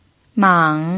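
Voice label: "mang", third tone, Mandarin Chinese pinyin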